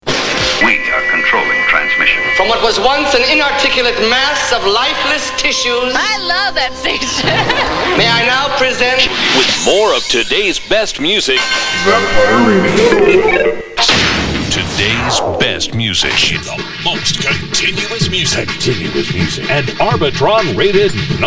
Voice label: Radio Ad